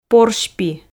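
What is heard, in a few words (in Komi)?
"порсьпи" Komi, female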